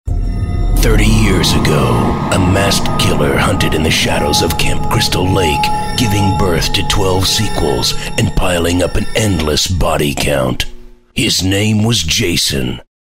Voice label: movie trailer